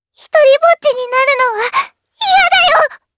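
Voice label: voice, Japanese; Lavril; kingsraid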